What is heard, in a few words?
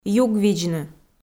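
"югвидзны", Komi, female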